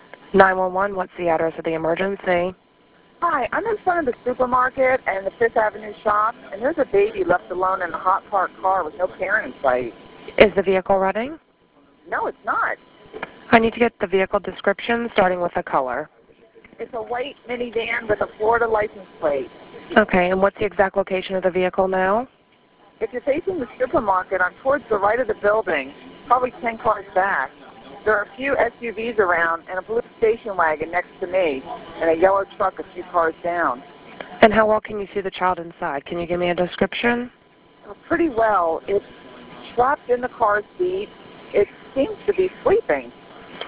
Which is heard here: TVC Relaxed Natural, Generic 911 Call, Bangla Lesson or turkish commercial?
Generic 911 Call